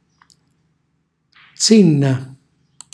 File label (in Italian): "zinna", Calabrese; seno; cucinacalabrese; glossario